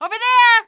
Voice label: over there, female; Half-Life 2

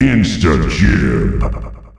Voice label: Instagib, announcer male; Unreal Tournament; ut2004

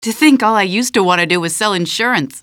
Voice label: question, female; Half-Life 2

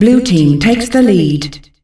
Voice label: Blue Team takes the lead, Announcer Voice, female; Unreal Tournament; ut2004

